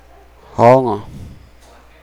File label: "hole", Nukuoro